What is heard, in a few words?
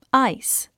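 "ice", English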